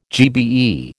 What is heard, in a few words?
"GBE", American